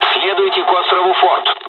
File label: fly, dialogs russian; War Thunder